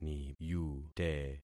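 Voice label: "niyute", concatenated syllables; Affixing experiment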